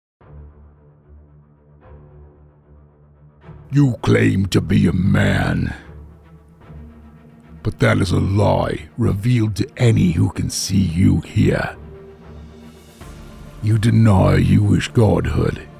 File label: Character Voice; Master Of Mankind